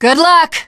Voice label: finale gas, teengirl; Left 4 Dead 2